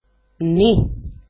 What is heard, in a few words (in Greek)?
"ni", greek; alphabet